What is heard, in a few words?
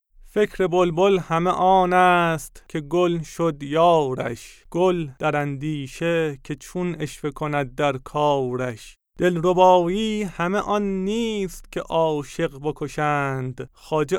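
Farsi-Persian, male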